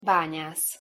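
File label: "banyasz", Hungarian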